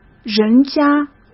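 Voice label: "人家", Mandarin Chinese; hsk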